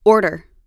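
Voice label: "order", American English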